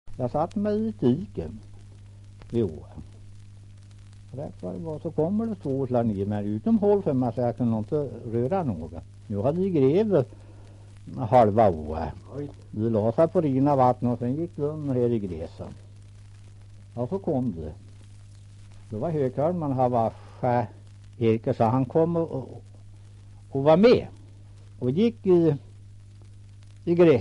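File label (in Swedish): Kräklinge Närke, dialekter; Folkminnen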